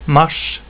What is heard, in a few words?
"mars", swedish